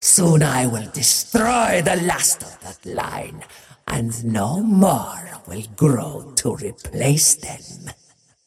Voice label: monologue; dota; muerta